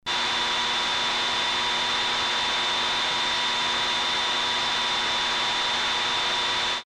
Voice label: battle radio communication